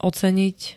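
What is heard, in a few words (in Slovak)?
"oceniť", Slovak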